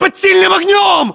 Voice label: inform suppressed generic, battlechatter ru

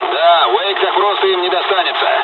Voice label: dialogs russian; War Thunder

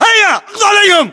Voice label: order attack infantry, battlechatter, english

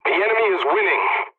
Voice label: pilot tickets lead start enemy, english; War Thunder

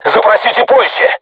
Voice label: voice message art reloading, crew tanks russian

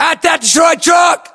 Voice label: landmark near truck destroyed, US; localized english; battlechatter

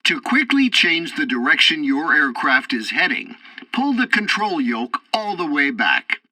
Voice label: tutorial fighter ground fight, english; War Thunder

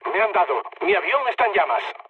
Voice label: on fire mood low; War Thunder

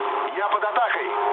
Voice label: aircraft damaged, mood low, speech ru

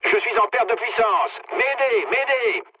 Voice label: damaged engine mood low, speech fr; War Thunder

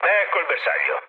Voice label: near waypoint mood low, speech it; War Thunder

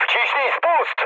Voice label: attack position mood med, speech pol; War Thunder

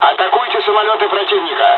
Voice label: dialogs russian; War Thunder

